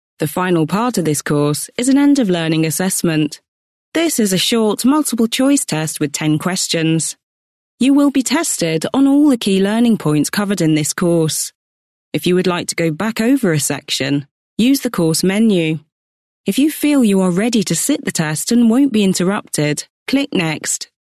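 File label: English, UK, female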